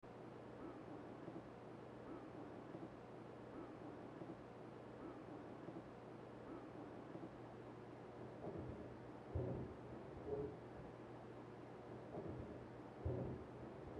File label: EVP